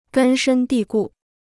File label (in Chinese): "根深蒂固", Chinese